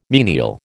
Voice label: "menial", American

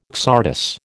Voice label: "csardas", American

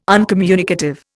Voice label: "uncommunicative", Indian

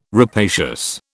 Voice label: "rapacious", British

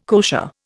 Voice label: "kosher", Indian

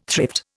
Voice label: "thrift", Indian

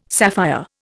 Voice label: "sapphire", Indian

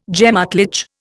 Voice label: "gemÁtlich", Indian

